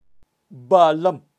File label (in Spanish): "balam" guepardo, kaqchikel, pronunciacion de animales